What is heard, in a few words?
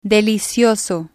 "delicioso", spanish